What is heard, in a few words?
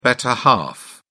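"better half", British English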